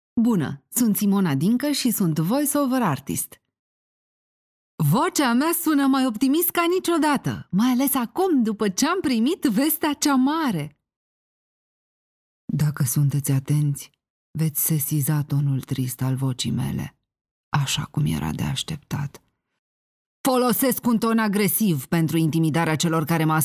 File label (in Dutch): Roemeens Vrouw